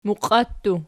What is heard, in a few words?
"muqiattu", Archi